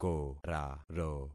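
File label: "koraro", concatenated syllables; Affixing experiment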